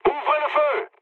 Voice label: attack position mood med, speech fr; War Thunder